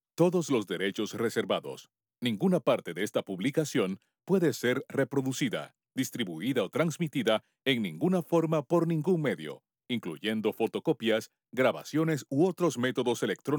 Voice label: Bienvenido, Spanish